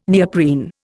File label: "neoprene", Indian